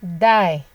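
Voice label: "die", Nigerian Pidgin, female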